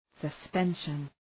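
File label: "suspension", English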